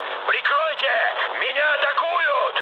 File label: aircraft damaged, mood med, speech ru